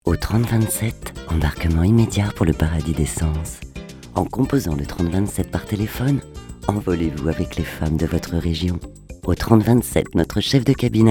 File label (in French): audiotel